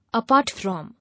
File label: "Apart from", English